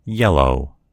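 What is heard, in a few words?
"yellow", American English; gcompris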